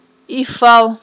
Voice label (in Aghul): "ифал", Aghul